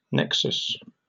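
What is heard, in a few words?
"nexus", British English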